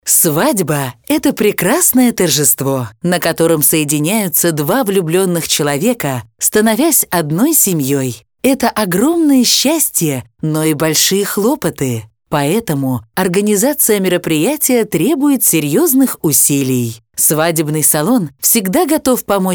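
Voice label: Russian female Inspiration, vo examples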